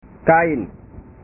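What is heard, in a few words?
"kain", Indonesian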